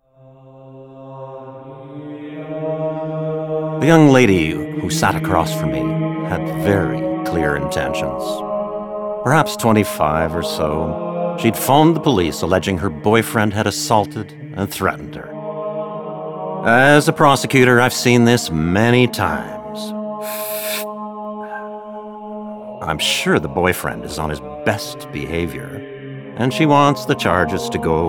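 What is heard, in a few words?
Character, male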